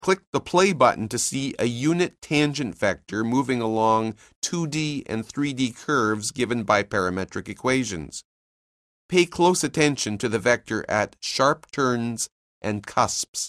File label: narration; CALCULUS 7E; cengage